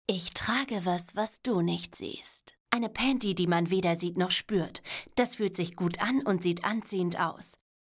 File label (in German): impro, sprache